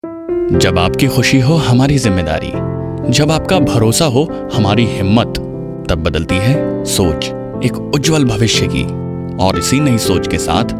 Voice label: Radio Hindi